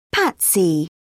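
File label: "patsy", British English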